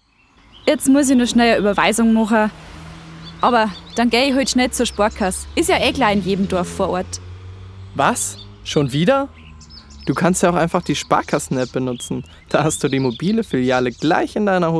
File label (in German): Werbung Sparkasse